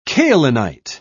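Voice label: "kaolinite", British English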